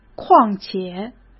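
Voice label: "况且", Mandarin Chinese; chinese flashcards; hsk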